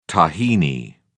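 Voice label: "tahini", British English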